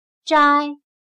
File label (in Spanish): "摘", Chino